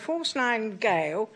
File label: "force 9 geiO", London